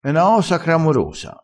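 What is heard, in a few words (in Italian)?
"cramoroso", vocabolario dialettale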